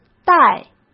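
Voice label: "代" Mandarin Chinese; hsk; chinese-flashcards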